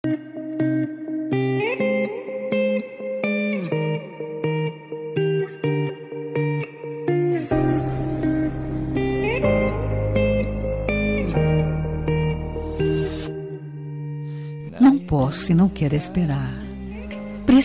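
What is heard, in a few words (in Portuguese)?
dia dos namorados paquera feminina; dia dos namorados conquista voz feminina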